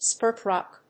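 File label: "spur truck", English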